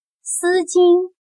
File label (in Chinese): "丝巾", chino